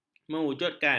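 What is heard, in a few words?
"mewujudkan", Malay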